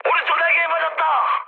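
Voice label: Korean right wing mood high, speech; War Thunder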